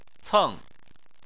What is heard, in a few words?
"ceng4", chinese